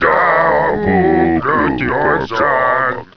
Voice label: annoyed; ships